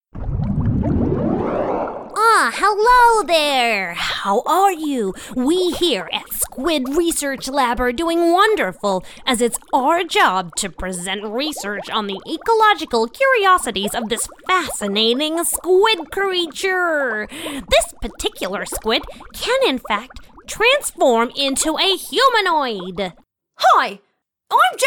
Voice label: Animation